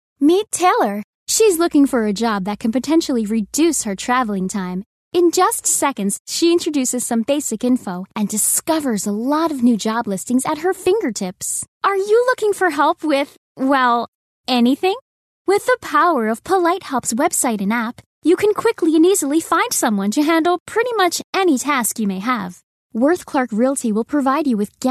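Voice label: English, US, female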